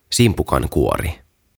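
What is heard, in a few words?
"Simpukankuori", "Shell", Finnish, English; OTAVA KONSERNI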